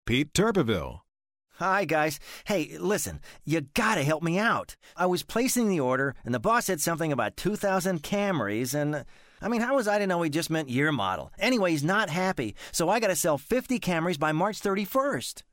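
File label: guy, voice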